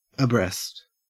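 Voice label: "abreast", Australian English; pronunciation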